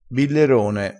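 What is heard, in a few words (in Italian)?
"billerone", dialettale crespinese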